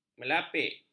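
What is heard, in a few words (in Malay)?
"melapik", Malay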